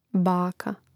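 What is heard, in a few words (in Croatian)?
"baka", Croatian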